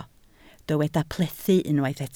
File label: "plethu", Welsh